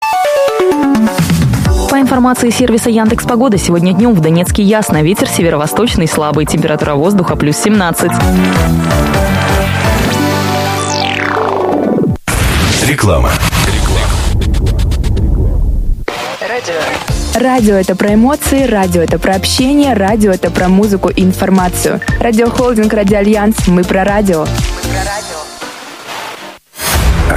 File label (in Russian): Pogoda mestnyj reklamnyj blok; Novoe radio Doneck 105.5 MGc